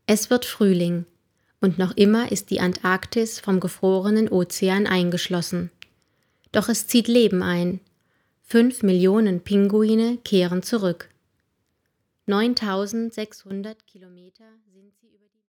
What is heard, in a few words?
Voiceover DE